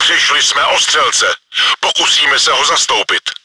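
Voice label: gunner killed, чешской озвучки; Přišli jsme o střelce, pokusíme se ho zastoupit.; Czech; Мы лишились наводчика, попробуем его заменить.; World of Tanks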